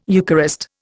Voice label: "Eucharist", Indian